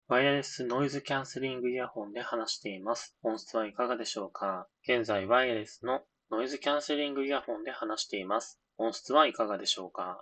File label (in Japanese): ノイズ無し通話